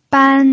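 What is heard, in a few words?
"ban", pinyin, first tone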